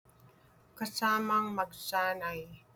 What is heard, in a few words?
Tagalog